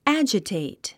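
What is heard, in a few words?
"agitate", English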